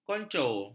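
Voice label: "konco", Malay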